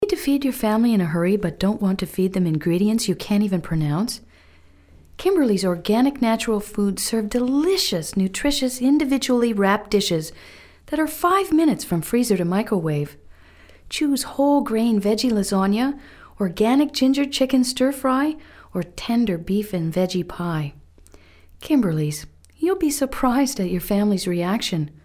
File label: voiceover